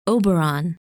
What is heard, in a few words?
"Oberon", pronunciation